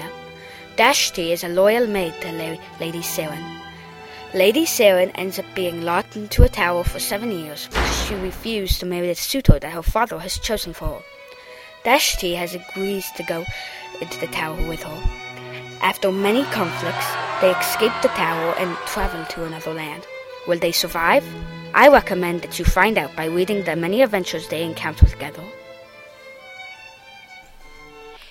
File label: Book Chatter